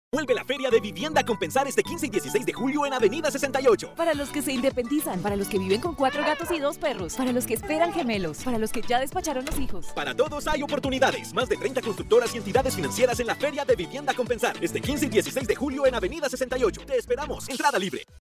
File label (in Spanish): locución comercial